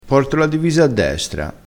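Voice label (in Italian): "divisa", dialettale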